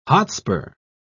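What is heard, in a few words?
"hotspur", British English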